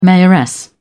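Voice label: "mayoress", British English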